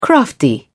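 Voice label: "crafty", British English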